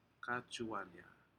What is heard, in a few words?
"kajauanya", Aneityum; fish